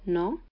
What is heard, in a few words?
"No", Sardinian; Sardinian page 18